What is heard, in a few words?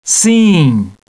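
"yes", male; TALKNOW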